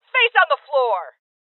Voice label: Order To Surrender Female, Voices